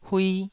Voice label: "hui2", taiwanese syllable

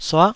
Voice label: "suah9", taiwanese Syllables

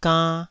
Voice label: "gann2", Taiwanese syllable

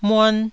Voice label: "muan1", taiwanese syllables

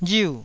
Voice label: "riu4", Taiwanese

